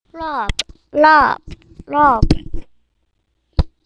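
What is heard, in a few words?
"rub", spoken word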